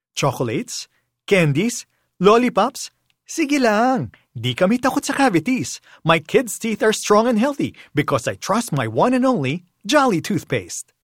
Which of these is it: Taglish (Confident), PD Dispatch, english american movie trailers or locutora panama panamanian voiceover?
Taglish (Confident)